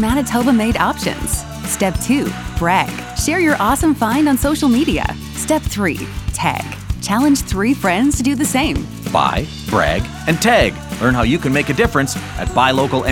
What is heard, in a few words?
Radio Buy Local; Buy Local MB Campaign